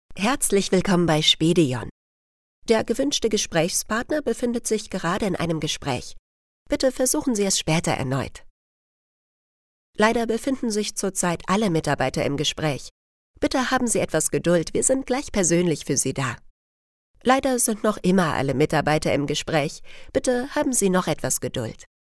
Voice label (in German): telefonansage